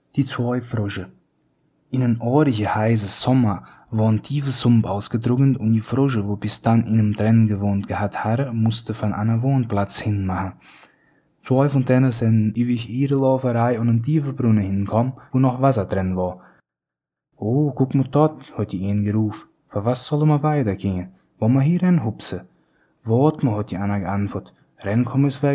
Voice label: two frogs hunsrik

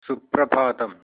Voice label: good morning, malayalam

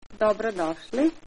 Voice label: welcome, Bosnian; Dobrodošli

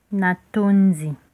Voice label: thanks, lingala

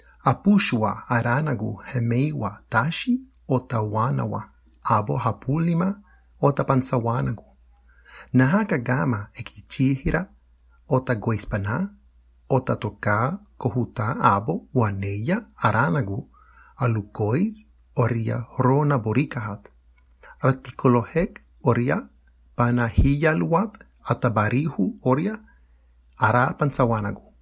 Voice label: Taino

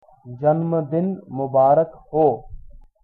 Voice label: birthday, hindi

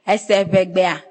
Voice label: do you speak, ewe; Ese ʋegbe a?

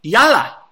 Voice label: come on, hebrew

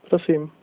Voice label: you're welcome, slovak

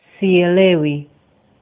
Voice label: no understand swahili

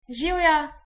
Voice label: cheers, slovenian

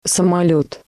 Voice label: aeroplane, russian; самолет; Rosetta Stone Russian

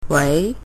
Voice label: hello, cantonese

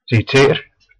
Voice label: say again, west flemish; Ziej ’t zee(k)r

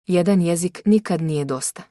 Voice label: one language, Bosnian

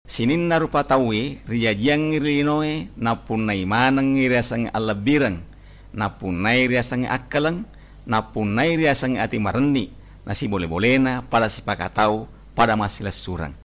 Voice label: bugisnese

